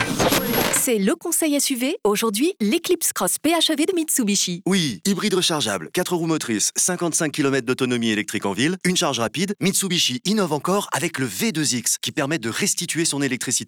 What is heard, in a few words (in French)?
Spot Radio; MEDIAKEYS; MITSUBISHI